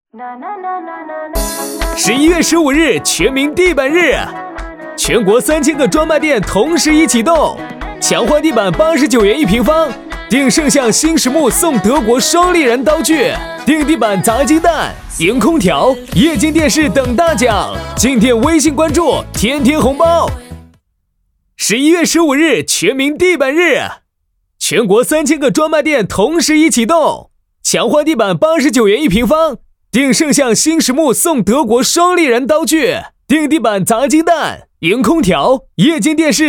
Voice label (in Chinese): 男 广告 促销